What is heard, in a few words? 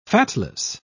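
"fatless", British English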